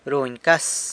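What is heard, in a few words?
"runy cas", San Lucas Quiaviní Zapotec; pays attention to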